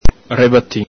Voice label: "rebatin", Venetian

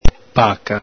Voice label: "paca", Venetian

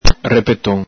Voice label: "repeton", Venetian

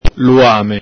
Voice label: "luame", Venetian

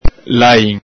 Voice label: "lain", Venetian

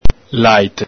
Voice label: "laite", Venetian

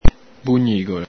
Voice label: "bugnigolo", Veneto

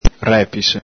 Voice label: "repise", Venetian

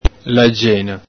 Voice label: "lagena", Venetian

